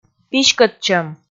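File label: "писькӧдчӧм", Komi, female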